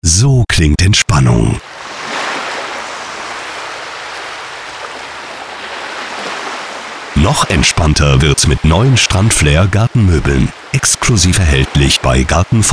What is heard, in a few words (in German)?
Radiospots; Garten Fockenberg; radiospots 2019 auf radio emscher lippe